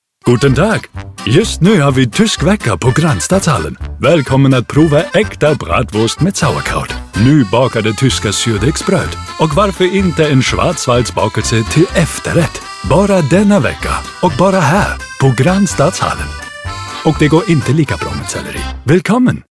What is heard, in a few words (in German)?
Hoerprobe Schwedisch; Grannstadshallen